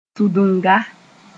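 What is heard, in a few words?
"sũdunga", Mooré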